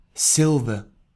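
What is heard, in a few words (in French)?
"argente", French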